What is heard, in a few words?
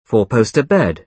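"four-poster bed", British English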